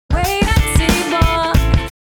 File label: Vocal Sample Raw